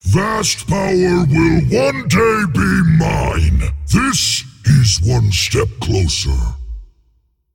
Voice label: Male Demon Bark; Rathorian